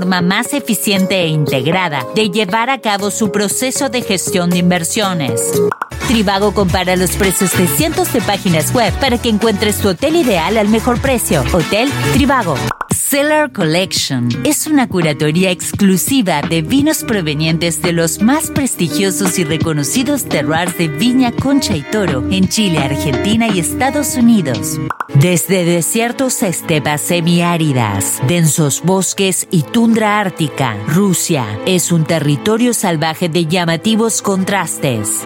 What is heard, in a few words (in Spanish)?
neutro, espanol neutro